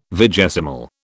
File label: "vigesimal", British